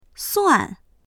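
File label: "suan4", Mandarin Chinese; 算; 計算する、…と見なす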